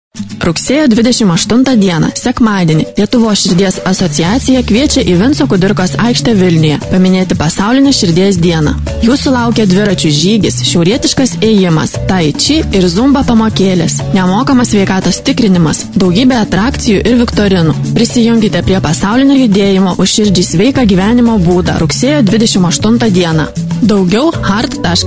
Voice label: lithuanian commercial; Litauisch; Litauen